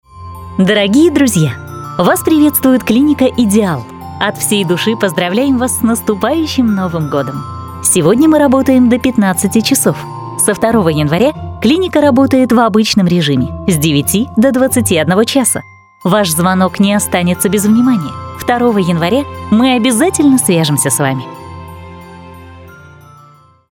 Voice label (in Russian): пример автоответчика